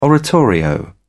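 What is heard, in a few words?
"oratorio", English, British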